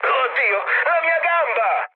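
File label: Italian damaged pilot mood high, speech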